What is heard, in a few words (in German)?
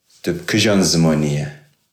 arabisch syrien